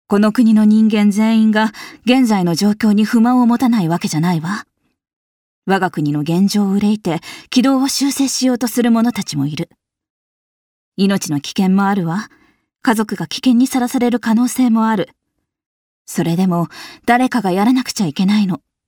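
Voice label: seikaku female dojikko; 弱気な男の子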